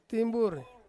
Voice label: "timburi", Ansus; cassava

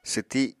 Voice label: "swti", Apatani; Material Culture

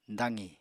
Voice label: "daqi", Apatani

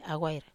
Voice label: "awair", Wamesa

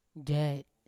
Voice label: "jai", Yawa; to swim

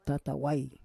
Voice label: "tatawai", Roon

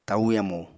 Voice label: "tau yamu", apatani

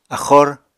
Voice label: "axor", Apatani

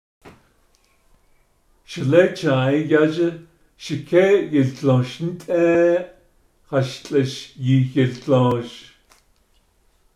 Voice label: navajo postposition; My puppy went into the mud as he trotted along be

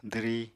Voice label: "dwri", Apatani

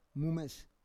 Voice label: "mumes", Roon

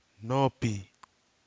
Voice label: "nopi", Nafe (Kwamera)

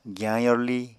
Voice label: "giyañ yorli", Apatani

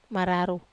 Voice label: "mararou buo", Wamesa

